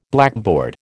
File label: "blackboard", American